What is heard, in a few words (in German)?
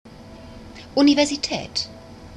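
"Universität", German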